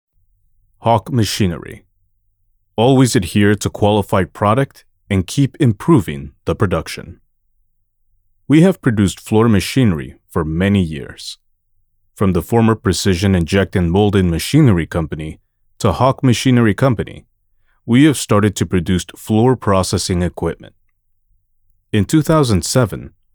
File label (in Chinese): 外籍英男产品介绍低沉厚重